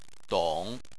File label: "dong3", Chinese; Chinese Surnames